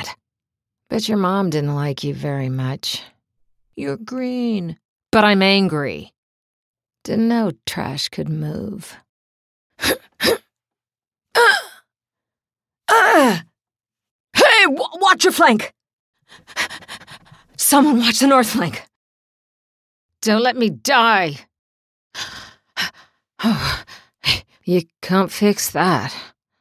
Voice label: Recruit Female